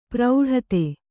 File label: "ಪ್ರೌಢತೆ", Kannada